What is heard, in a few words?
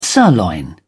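"sirloin steak", British English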